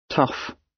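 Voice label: "tough", British English; Crime and punishment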